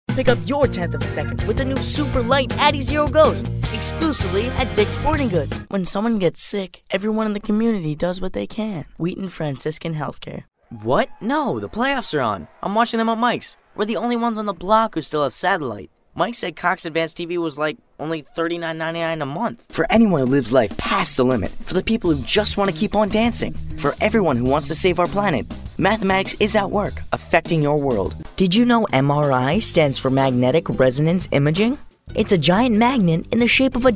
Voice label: Voiceover

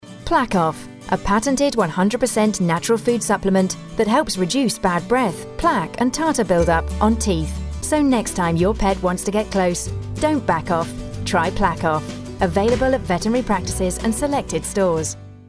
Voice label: TV commercial

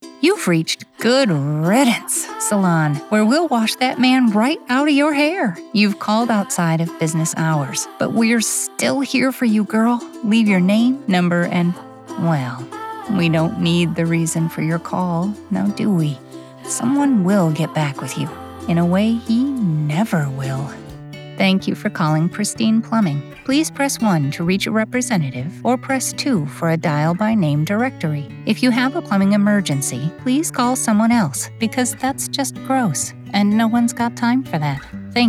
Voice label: Voicemail and IVR

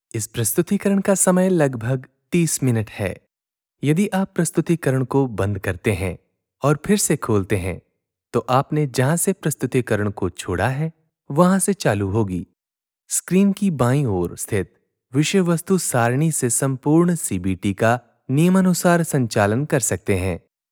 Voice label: Hindi sample

